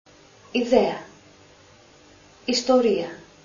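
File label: "Iota", Greek alphabet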